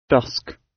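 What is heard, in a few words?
"dusk", British English; Time, history; Thời gian, lịch sử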